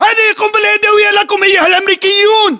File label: inform attack grenade, battle chatter, english